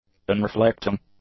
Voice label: "unreflecting", english; adjectives

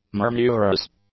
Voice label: "murmurous", English; adjectives

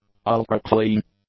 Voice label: "ultra-clean", English; adjectives

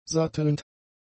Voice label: "sattelnd", german; adjectives

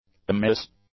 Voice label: "amiss", English; adjectives

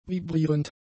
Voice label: "vibrierend", German; adjectives

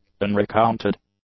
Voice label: "unrecounted", english; adjectives

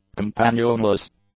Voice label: "companionless", English; adjectives

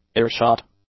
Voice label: "earshot", English

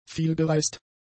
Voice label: "vielgereist", German; adjectives

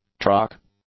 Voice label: "truck", English; nouns

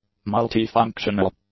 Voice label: "multi-functional", English; adjectives

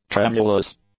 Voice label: "tremulous", English; adjectives

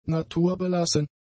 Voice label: "naturbelassen", German; adjectives

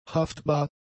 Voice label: "haftbar", German; adjectives

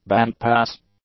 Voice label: "bandpass", English; nouns

